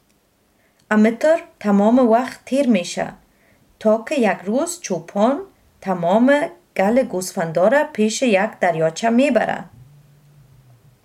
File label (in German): dari